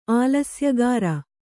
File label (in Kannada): "ಆಲಸ್ಯಗಾರ", Kannada